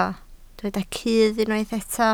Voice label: "cudd", Welsh